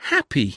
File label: "happy", English